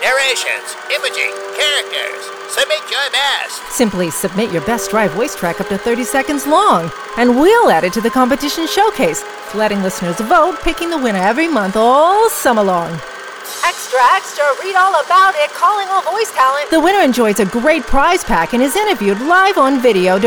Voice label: vo radio promo